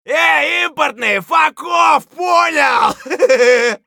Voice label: scav battlecry; eft